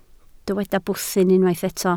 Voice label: "bwthyn", Welsh